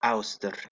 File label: "austr", Proto-Germanic